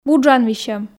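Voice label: "вуджан висьoм", Komi, female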